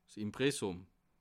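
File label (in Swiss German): "impressum", Bas Rhin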